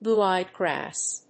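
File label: "Blue-eyed grass", English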